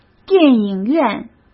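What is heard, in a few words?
"电影院", Mandarin Chinese; chinese flashcards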